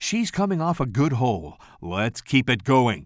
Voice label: commentator